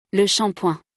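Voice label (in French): "Le shampoing", French; vocabulaire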